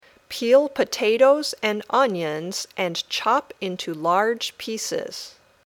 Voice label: Dictation